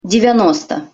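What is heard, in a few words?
"devjanosto", Russian pronunciation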